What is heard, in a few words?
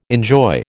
"enjoy", American